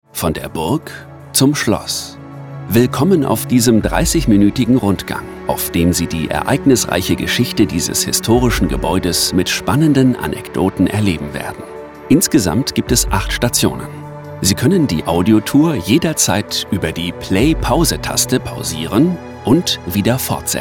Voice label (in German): audioguide ruhig sympathisch musik